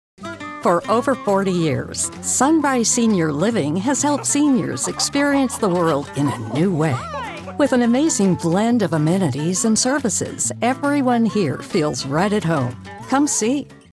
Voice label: Welcoming Senior Home Living